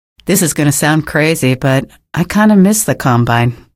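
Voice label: citizen remarks, female; vo; npc; source engine; episodic; episode 1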